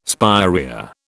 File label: "spirea", British